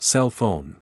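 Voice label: "cell phone", English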